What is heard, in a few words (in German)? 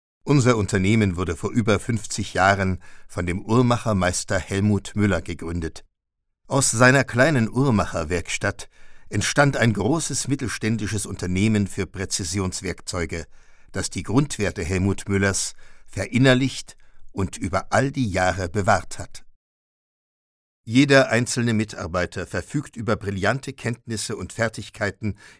Imagefilm